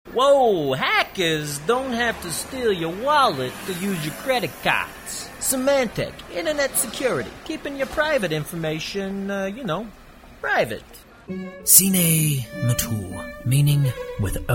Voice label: Commercial